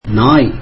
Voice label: tamil dog